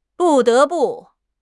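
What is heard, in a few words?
"bu4 de2 bu4", Mandarin Chinese; HSK4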